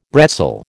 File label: "bretzel", American